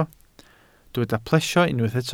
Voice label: "plesio", welsh